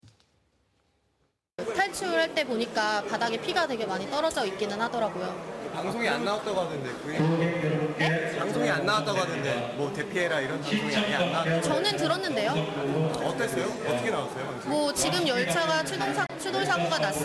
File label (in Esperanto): intervjuo; seul metro